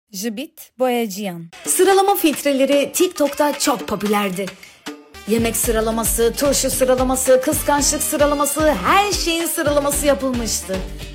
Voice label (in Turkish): turkce kadin